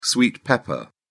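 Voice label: "sweet pepper", British English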